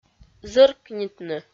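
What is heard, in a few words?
"зӧркнитны", Komi, female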